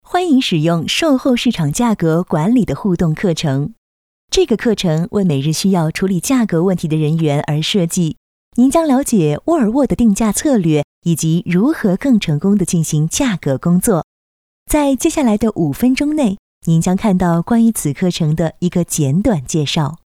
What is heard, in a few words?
CHINESE Female